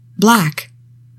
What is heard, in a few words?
"BLACK", english; 3000 words